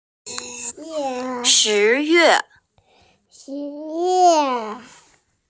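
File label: "shi yue", Mandarin Chinese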